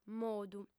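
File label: "modu" Sardinian, female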